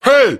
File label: "hey", male; human